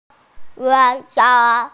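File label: "school", Mandarin Chinese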